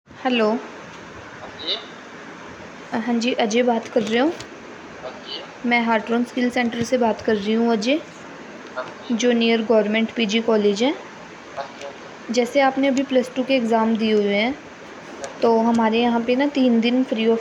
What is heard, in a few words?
call recording